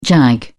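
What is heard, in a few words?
"jag", British English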